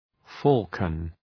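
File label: "falcon", English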